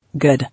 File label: "Good", English